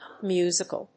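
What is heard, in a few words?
"a musical", English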